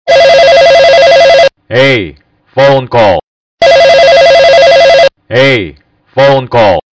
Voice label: Hey Phone Call